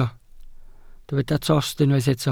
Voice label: "tost", Welsh